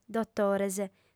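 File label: "dotores", Sardinian, female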